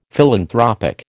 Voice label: "philanthropic", American

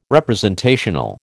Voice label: "representational", American

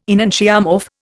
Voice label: "enantiomorph", Indian

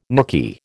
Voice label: "nooky", American

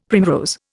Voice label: "primrose", Indian; Dictionary

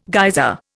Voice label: "geyser", Indian

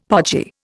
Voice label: "podgy", Indian; Dictionary

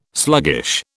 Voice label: "sluggish", British

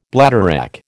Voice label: "bladderwrack", American

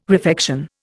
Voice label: "refection", Indian

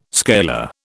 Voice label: "scalar", British

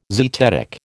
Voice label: "zetetic", American

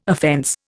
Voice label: "offence", Indian

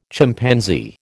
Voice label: "Chimpanzee", American

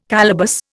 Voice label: "calaboose", Indian

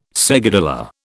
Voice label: "seguidilla", British

